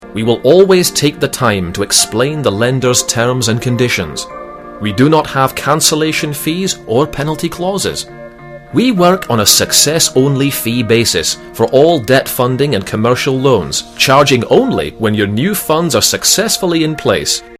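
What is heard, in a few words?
narration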